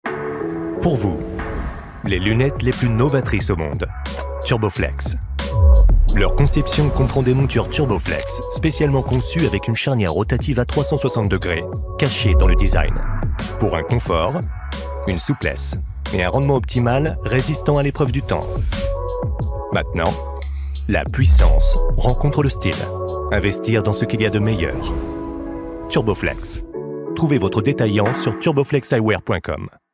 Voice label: commercial tv; turboflex novatrices